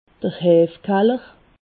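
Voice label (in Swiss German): "heefkaller", Alsatian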